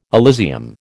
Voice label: "Elysium", American